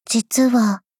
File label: sad